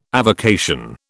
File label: "avocation", British